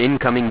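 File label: "incoming", english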